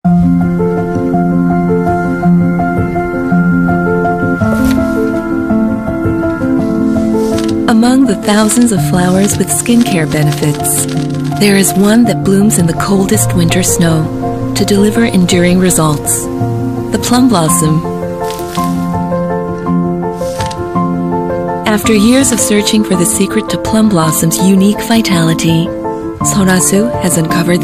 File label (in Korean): 영어 여 차분한톤; Sulwhasoo-Bloomstay-Vitalizing-Ingredient-Story